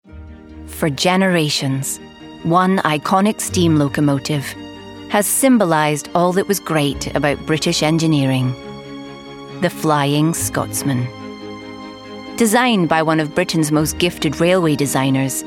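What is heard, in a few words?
Documentary, Female; Royal Scotsman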